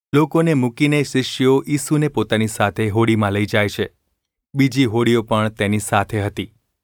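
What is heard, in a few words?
gu ulb mrk, Gujarati; mrk 4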